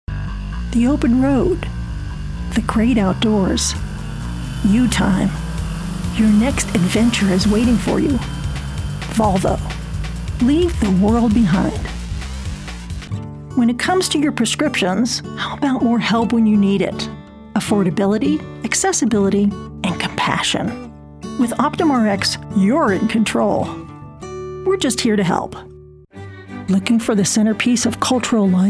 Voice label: Commercial, Voice-Over; Commercial-Demo